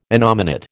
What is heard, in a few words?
"innominate", American